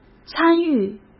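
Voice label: "参与", Mandarin Chinese; chinese flashcards; hsk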